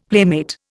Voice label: "playmate", Indian; Dictionary